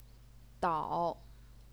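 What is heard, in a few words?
"dao", third tone, Chinese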